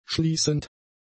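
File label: "schließend", German; adjectives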